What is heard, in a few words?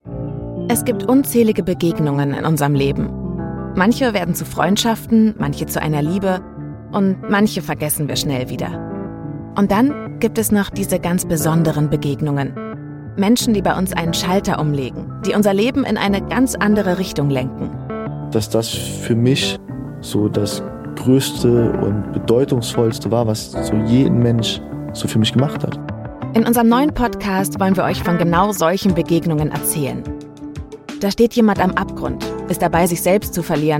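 Podcastintro, Comment (Kommentar), German, Akzent: Russian; Podcast | Mein Mensch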